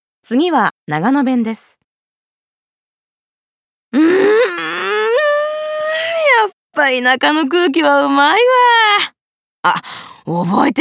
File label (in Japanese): 方言